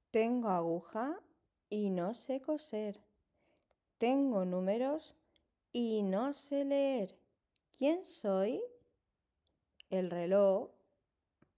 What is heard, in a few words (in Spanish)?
Adivinanza castellano El reloj